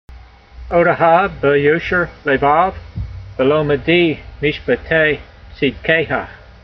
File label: voice, hebrew language; Psalm 119:7; odeha beyosher layvav, belomedee mishpetay tseedkayha